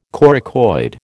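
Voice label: "coracoid", American English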